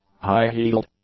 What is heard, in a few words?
"high-heeled", English; adjectives